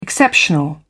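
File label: "exceptional", British English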